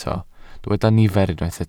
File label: "nifer", Welsh